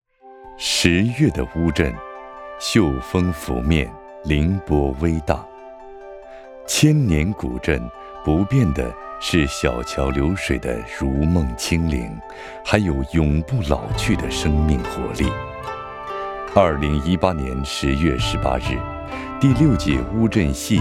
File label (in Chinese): 男; 乌镇